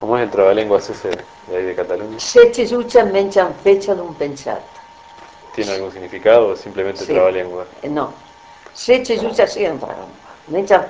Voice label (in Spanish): trabalenguas catalan